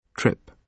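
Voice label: "trip", British English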